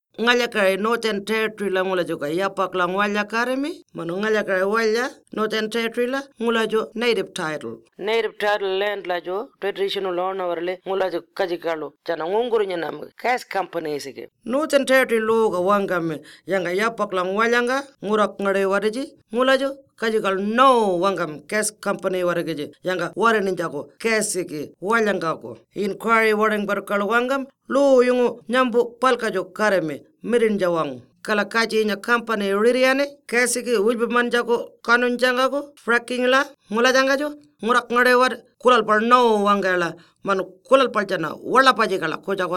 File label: Warlpiri; Fracking Draft Final Report; Native Title and Aboriginal Land